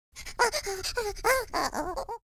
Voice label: scared, Irish; speech